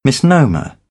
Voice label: "misnomer", British; AmericanBritish

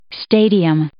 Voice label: "stadium", English

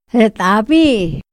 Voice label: "ra t´abi", Otomí; Días de la semana; INPI; INALI

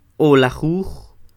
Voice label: "O'lajuj", Quiché; quince; fifteen; INALI; UTexas; Números

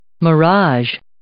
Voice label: "mirage", English

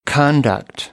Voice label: "conduct", American; English; ɑː - ɒ

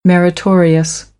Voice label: "meritorious", American; ə - ɪ

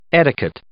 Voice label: "etiquette", English

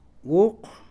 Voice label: "siete", Mam; Números; INALI

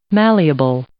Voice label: "malleable", English

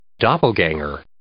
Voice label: "doppelgänger", English

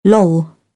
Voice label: "loll", British; AmericanBritish

